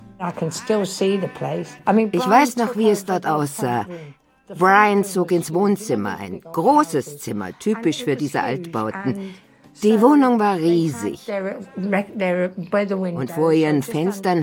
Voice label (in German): Voice Over, frauen; sprecher; Arte